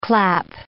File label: "clap", English word